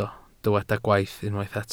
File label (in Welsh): "gwaith" Welsh